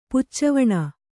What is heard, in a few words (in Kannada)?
"ಪುಚ್ಚವಣೆ", Kannada